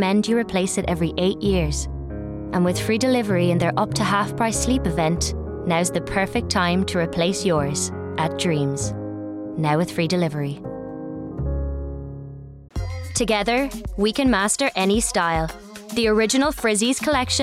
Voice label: Commercial, Irish voice over artist, female, enthusiastic, sincere, light